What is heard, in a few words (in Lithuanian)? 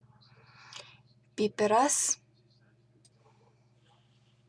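"pipiras", Lithuanian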